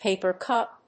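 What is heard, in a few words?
"paper cup", English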